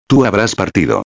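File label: "habras partido", Spanish; modos tempos verbais; indicativo; futuro perfecto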